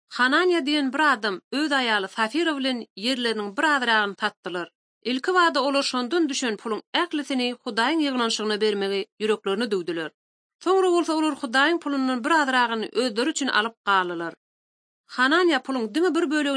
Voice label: turkmen, bible translation drama

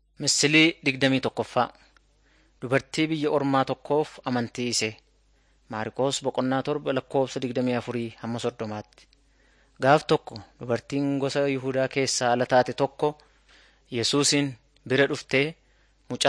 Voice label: oromo wellega; jesus teacher and healer short

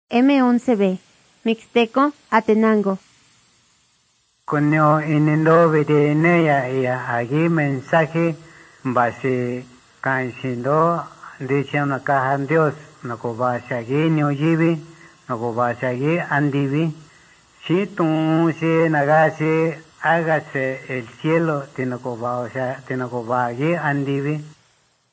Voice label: mixtec diagnostic spanish mexico

